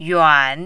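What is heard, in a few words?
"yuan3", mandarin, dipping tone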